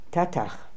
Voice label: "Tatakh", pronounciations; Fourth Way; Beelzebub